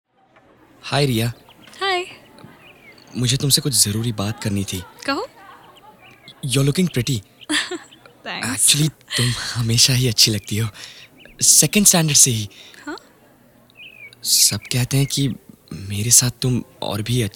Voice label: Commercial

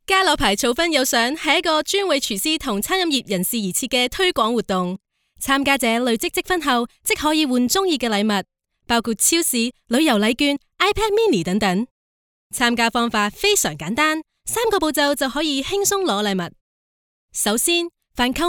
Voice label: Commercial

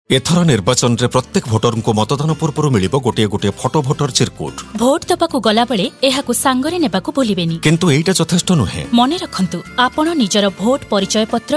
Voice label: FEMALE TVC

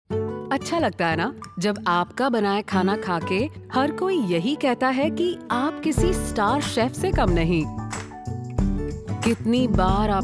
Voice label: Hindi Commercial

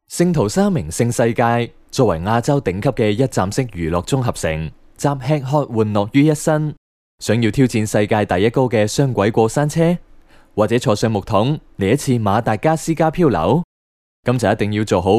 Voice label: Narration

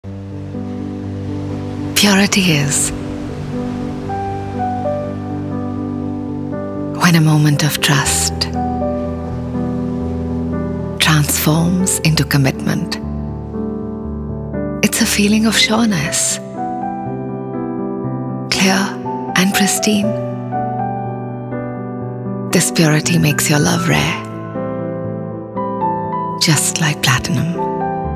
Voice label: Corporate

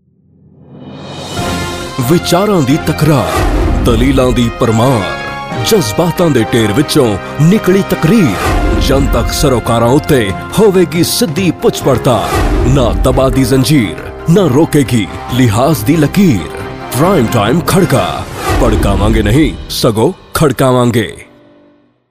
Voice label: Commercial

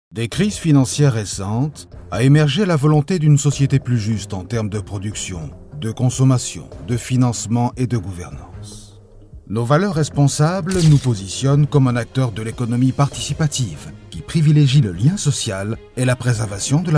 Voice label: Corporate

